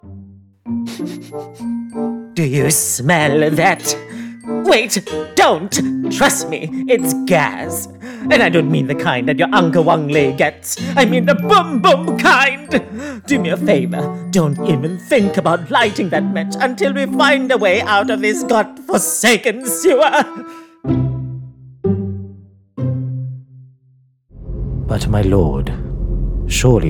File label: English Character